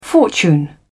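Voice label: "fortune", English, British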